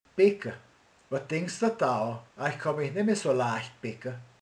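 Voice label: "hajol", Hungarian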